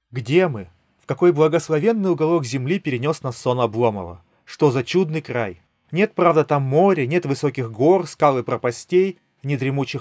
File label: Recorder; Запись на профессиональный рекордер; Soundcore Sleep A30